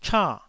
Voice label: "cha4", taiwanese